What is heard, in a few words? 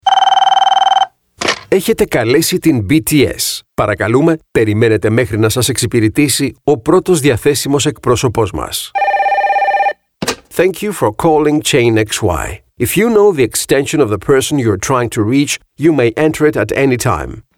IVR's